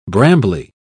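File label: "brambly", British English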